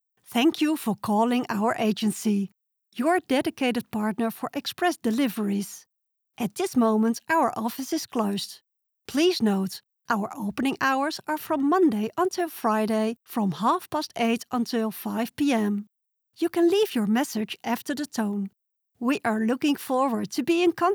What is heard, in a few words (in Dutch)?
Voice tekst Engels